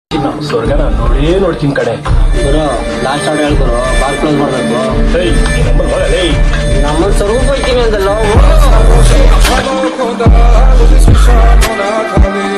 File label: batsman's dialogue ringtone; salaga